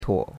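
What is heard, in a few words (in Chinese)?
"tuo3" Chinese; Cours de Chinois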